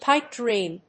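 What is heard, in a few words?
"pipe dream", English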